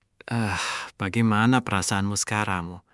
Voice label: indonesia, indonesian negative phrases